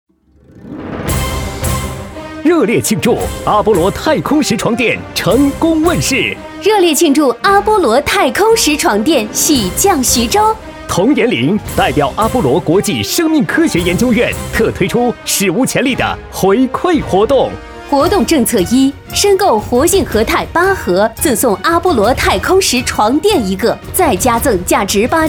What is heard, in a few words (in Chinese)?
女 广播 激情喜庆 医疗广告